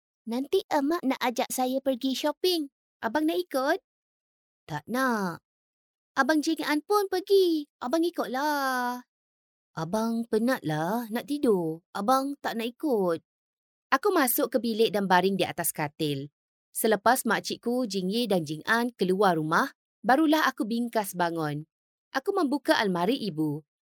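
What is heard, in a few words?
Malay Malaysia female voice over, upbeat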